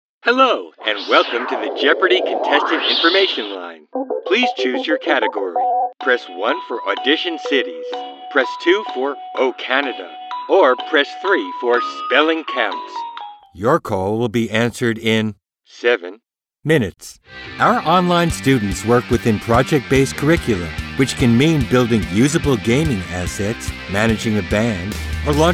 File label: Telephony IVR